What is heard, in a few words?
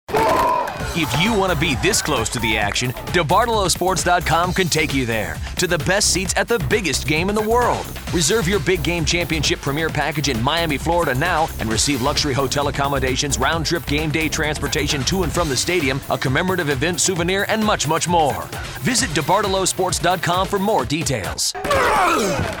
Sports Radio; Debartolo